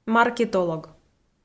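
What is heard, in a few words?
"маркетолог", Komi, female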